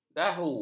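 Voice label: "dahu", Malay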